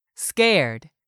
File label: "scared", English; 3000 words